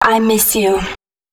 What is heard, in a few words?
female, spoken hooks